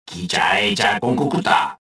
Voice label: detected, rodian

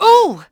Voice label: ENG; Cars; XBOX

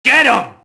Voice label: mobster alert group; Max Payne

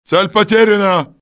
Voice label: target lost, russian soldier; XBOX

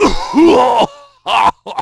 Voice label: fall, negative; Splashdown; XBOX

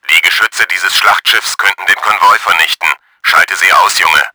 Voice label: SHOOT GUNS, german; Battle Engine Aquila

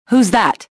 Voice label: suspicious; XBOX

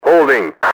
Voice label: holding, enemy english team orders; XBOX

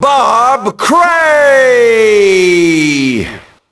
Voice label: announce, ENGLISH; Rocky; XBOX

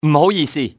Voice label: "pardon me", male; XBOX; civ

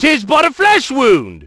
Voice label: flesh, negative; Splashdown; XBOX

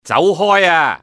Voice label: "go away", male; XBOX; civ

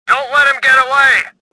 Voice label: anger; XBOX; stofficer

